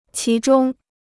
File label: "其中", Chinese